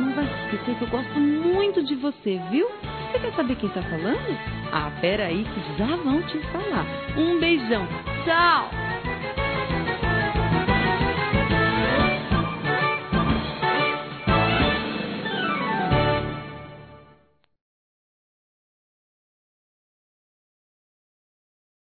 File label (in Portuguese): NEUTRO, Aniversário Infantil; Telemensagens